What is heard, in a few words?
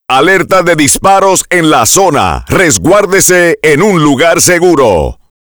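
Active Shooter Warning, Spanish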